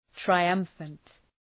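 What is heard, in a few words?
"triumphant", English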